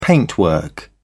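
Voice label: "paintwork", British English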